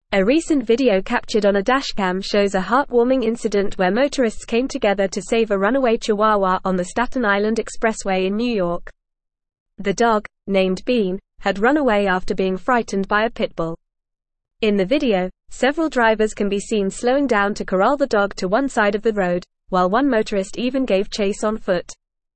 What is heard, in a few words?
English Newsroom Advanced FAST Reading; Motorists Rescue Runaway Chihuahua on Staten Island Expresswa